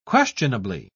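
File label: "questionably", British English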